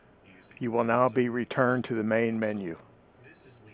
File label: Voice Redirect To Greeting Message